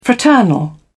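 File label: "fraternal", English, British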